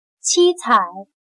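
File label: "七彩", Chinese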